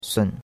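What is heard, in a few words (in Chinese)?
"sun3" Chinese; Cours de Chinois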